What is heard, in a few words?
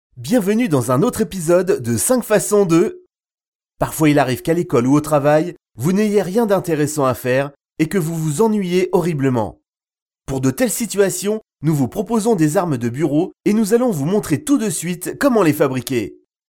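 french male; lektoring; fra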